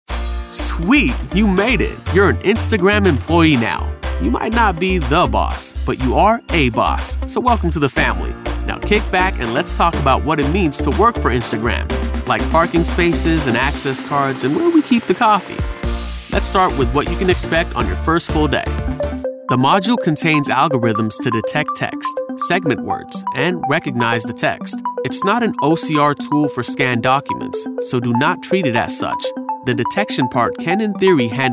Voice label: ELearning